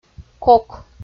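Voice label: "кок" Komi, female; audio dict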